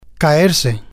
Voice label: "caerse", spanish